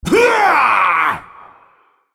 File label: ultimate effort, en US; Varus